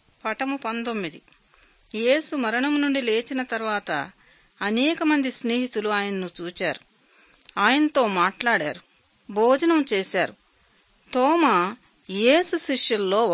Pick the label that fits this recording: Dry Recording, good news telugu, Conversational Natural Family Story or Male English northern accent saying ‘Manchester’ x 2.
good news telugu